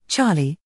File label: "c", uk